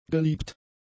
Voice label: "geliebt", German; adjectives